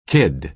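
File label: "kid", American English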